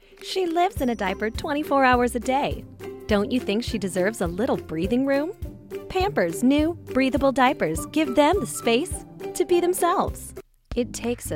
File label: Commercial, Female voices